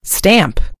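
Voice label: "stamp", American English; gcompris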